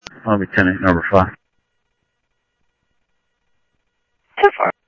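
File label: Lakes Sheriff Lake Patrol, scanner audio trunk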